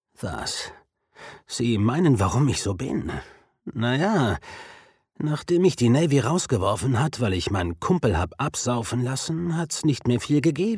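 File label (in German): Hörbuch; ESCAPE TIME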